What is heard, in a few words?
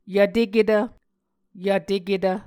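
"yvteketv", Muscogee word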